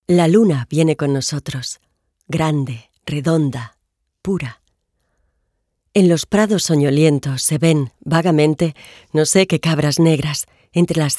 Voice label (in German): Hoerbuch Spanisch